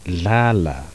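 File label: voiced alveolar fricative, zulu laterals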